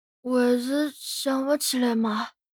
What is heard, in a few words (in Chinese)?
男孩 对话